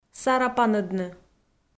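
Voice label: "сарапанӧдны", Komi, female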